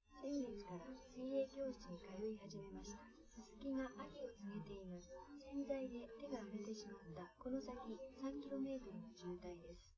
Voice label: female live rec; SiSEC10; underdetermined